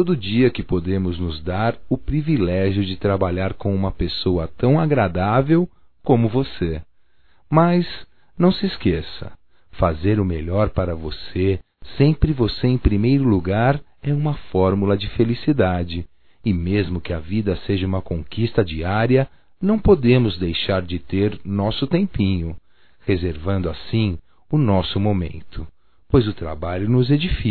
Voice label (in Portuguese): DIA INTER DA MULHER (M)